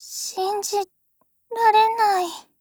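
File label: RETREAT, Japanese; C96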